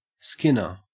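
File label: "skinner" English, Australian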